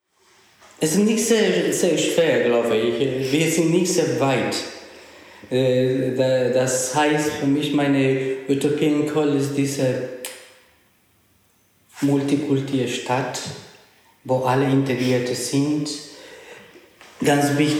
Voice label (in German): spanisch ecouador